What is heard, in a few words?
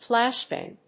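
"Flashbang", English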